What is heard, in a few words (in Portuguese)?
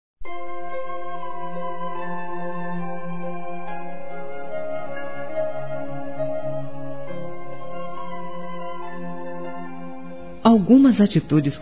Estou Triste com Você Feminino; Telemensagens; Telemensagens 2017